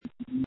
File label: call recording